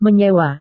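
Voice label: "menyewa", Indonesian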